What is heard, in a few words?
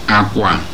bed, Igbo